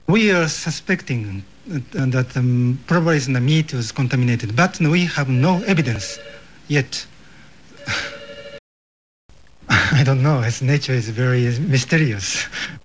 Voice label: Asian Japanese, dialect

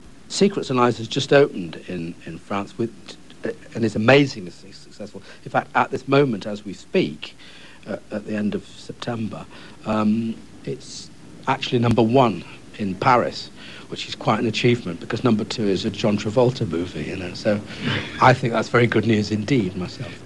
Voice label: British (London working class)

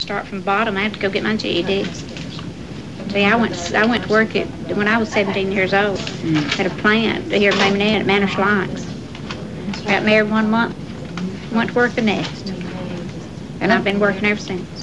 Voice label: Alabama female, dialect

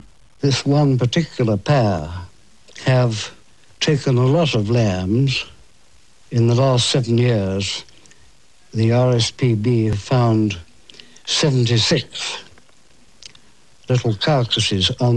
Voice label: British Scottish, dialect

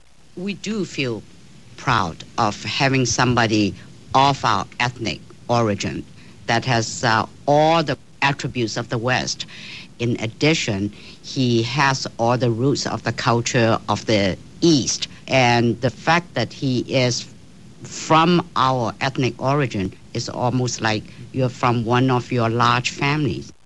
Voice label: Asian Chinese, dialect

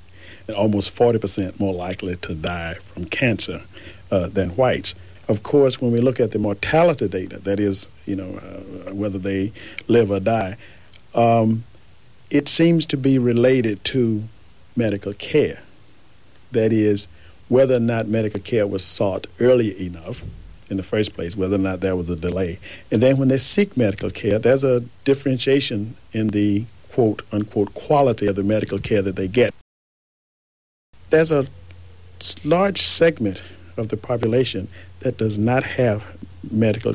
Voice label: AAE Cleveland, African American

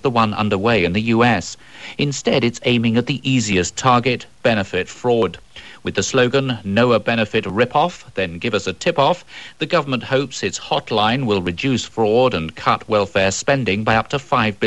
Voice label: British RP, dialect

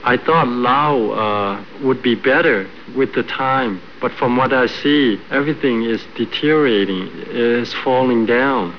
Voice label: Laotian

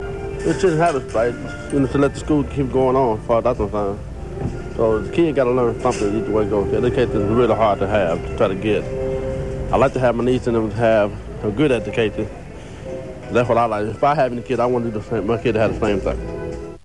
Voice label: AAE Cleveland, African American

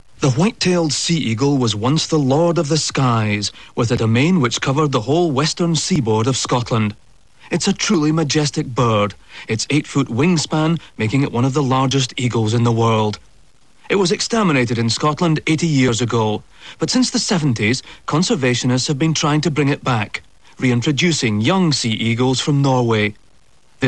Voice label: Scottish, dialect

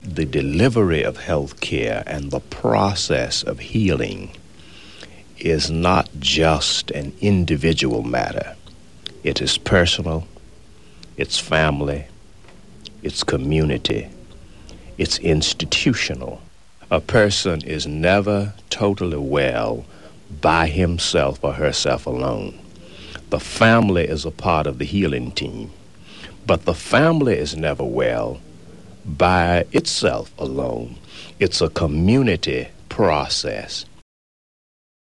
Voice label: AAE (Cleveland male), African American

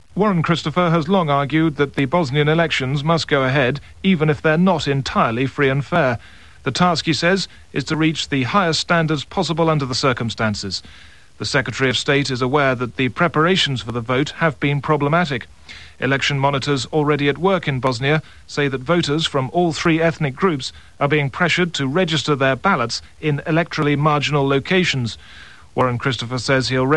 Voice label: British RP, dialect

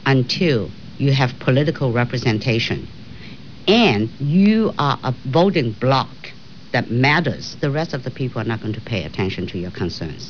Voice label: chinese

